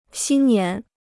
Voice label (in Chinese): "新年", Chinese